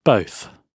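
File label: "both", British English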